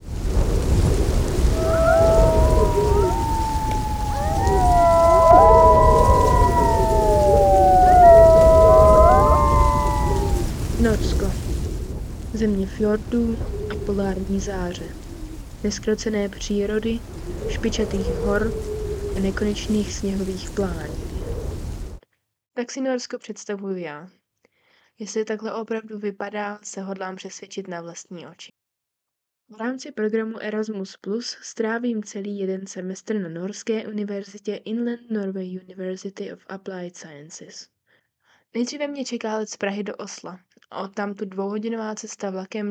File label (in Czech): narace